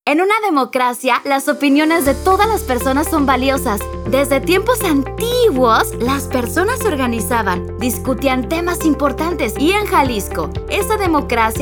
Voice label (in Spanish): Consulta popular Radio